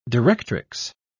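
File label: "directrix", British English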